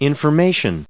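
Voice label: "information", US English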